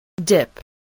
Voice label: "dip", english spelling

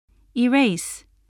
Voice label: "erase", voice, english

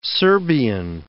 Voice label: "Serbian", English

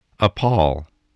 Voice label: "appall", spelling

